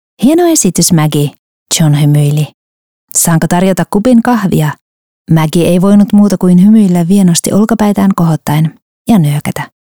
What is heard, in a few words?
finnish audiobooks